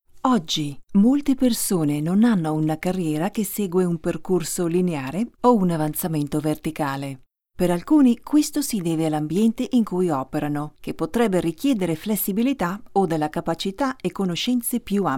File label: Italian Elearning